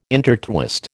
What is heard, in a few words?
"intertwist", American